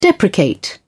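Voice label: "deprecate", English, British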